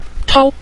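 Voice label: "chao" Mandarin Chinese, neutral tone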